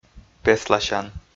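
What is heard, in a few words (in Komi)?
"песласян", Komi, male; audio dict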